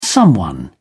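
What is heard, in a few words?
"someone", British English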